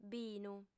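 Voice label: "binu", Sardinian, female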